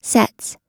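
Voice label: "sets", American English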